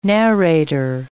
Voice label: "narrator", English